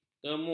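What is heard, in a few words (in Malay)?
"kemu", Malay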